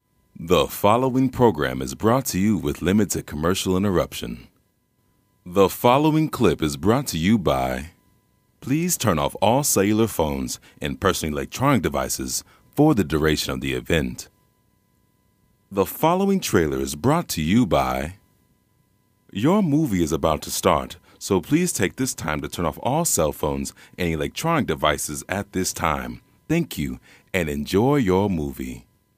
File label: Pre Show Announcements